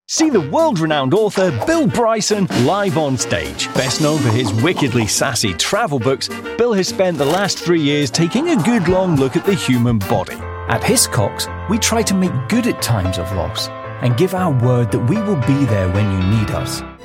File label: British Voice Over Commercial